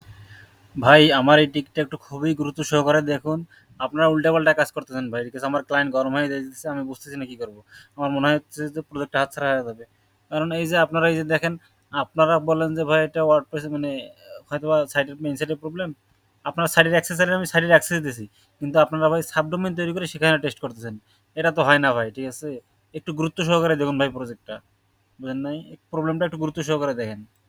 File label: voice message